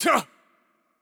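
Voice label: Attack Light, Mexican Spanish; es MX; VO; bloom minigame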